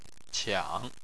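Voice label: "qiang", Chinese, tone 3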